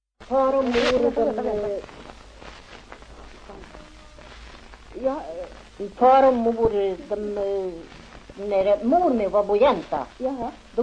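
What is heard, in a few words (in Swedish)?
Järvsö Hälsingland, dialekter, svenska sagor; Folkminnen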